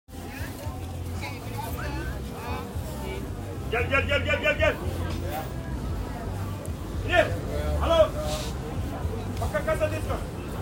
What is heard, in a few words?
Screaming salesperson, Rotterdam Field Recordings